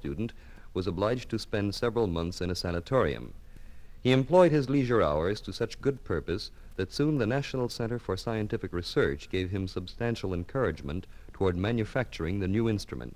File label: Ondioline Narration; 1958 World Fair